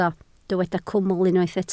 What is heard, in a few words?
"cwmwl", Welsh